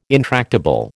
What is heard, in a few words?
"intractable", American